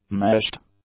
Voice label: "meshed", english; adjectives

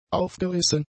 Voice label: "aufgerissen", German; adjectives

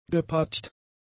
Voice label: "gepatcht", German; adjectives

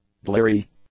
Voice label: "bleary", English; adjectives

